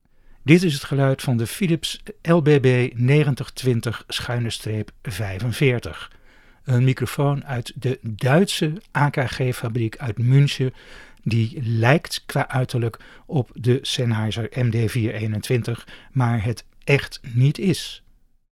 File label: Philips LBB 9020 sound NL